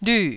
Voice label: "lyu4", Taiwanese syllable